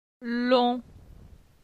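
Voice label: "Lon", French; phonetique; la syllabe on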